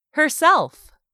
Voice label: "herself", English; 3000 words